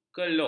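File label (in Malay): "kerluk", Malay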